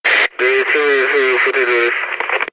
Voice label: chicken, grunt; svencoop; st allies; nimble grunt

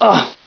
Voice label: pain; svencoop; barney

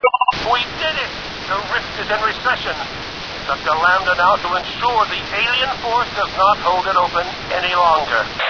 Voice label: radio; svencoop; keller

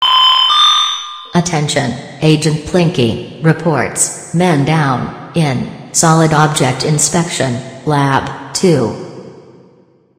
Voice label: announcement; svencoop; st mission

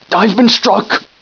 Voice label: hurt; svencoop; scientist; trask

